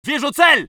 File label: voice sov infantry